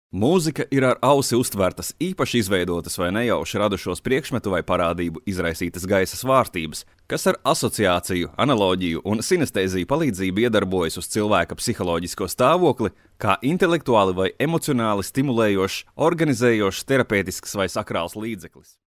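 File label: Latvian, male, narration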